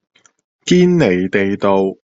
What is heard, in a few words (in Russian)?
Гонконгский